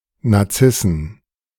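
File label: "Nazissen", German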